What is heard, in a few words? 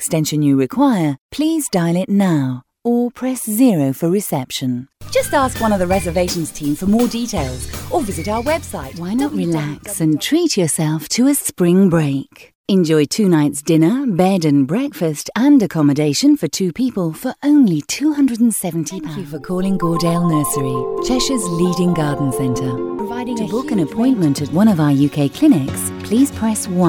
on hold